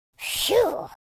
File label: "relief", speech